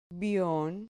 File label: "vion", Spanish syllable